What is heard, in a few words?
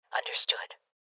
Confirmation, Female Old